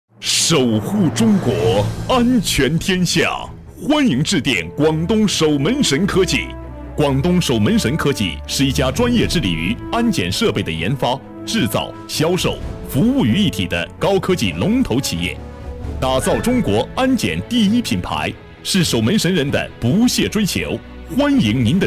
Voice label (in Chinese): 彩铃, 男; 新闻广告专题颁奖; 守门神科技成品